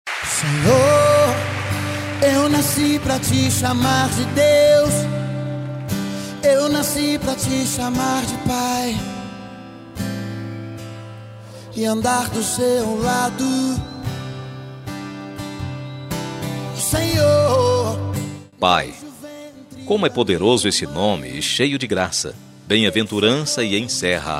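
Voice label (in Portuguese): Aniversário Evangélico Pai Masculino, telemensagem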